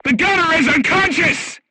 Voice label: voice message gunlayer contused, crew tanks vietnamese